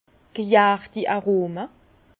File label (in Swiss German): "gjarti aroma", Bas Rhin; vin; arômes fermentaires